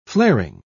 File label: "flaring", pronounce